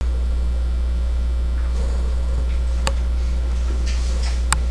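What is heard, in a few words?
"also", spoken word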